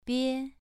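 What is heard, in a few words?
"bie" Mandarin, second tone, pronunciation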